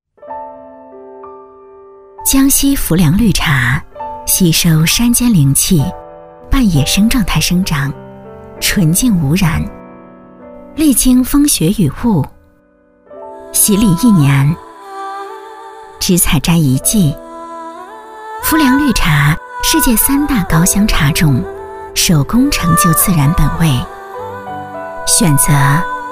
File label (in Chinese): 女 专题 宣传片; 浮梁绿茶